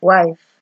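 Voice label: "wife", Nigerian Pidgin, female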